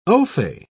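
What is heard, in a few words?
"ofay", English, British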